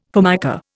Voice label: "Formica", Indian

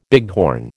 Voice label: "bighorn", American

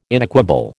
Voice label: "inequable", American

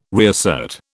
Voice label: "reassert", British

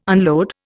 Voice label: "unload", Indian

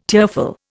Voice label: "tearful", Indian

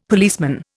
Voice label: "policeman", Indian; Dictionary

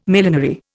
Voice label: "millenary", Indian

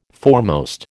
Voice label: "foremost", American

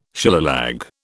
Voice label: "Shillelagh", British

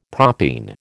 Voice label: "propene", American

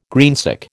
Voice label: "greensick", American

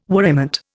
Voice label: "worriment", Indian

